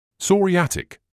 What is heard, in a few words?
"psoriatic", English